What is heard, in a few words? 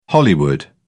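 "hollywood", British English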